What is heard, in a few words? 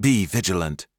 voice, taunt; elven mercenaries